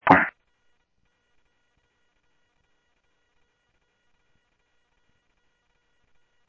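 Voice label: Sheriff Lake Patrol, scanner audio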